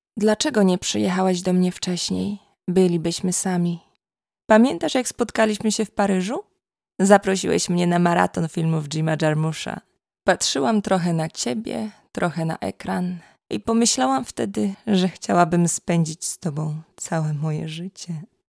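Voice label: Polish Character; GoLocalise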